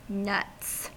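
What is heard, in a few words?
"nuts", US English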